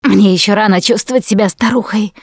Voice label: going to die, russian; Producer

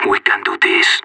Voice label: pep talk, separatist; player vo

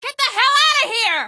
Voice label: get hell out, female; Garry's Mod; hl2

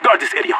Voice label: radio bot hold; player vo leet

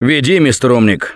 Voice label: Lead On, russian; Gambler

